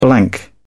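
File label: "blank", British English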